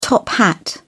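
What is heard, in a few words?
"top-hat", British English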